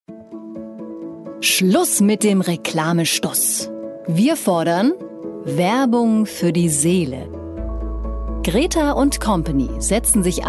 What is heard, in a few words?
Image, Imagefilm, German; Demo Imagefilm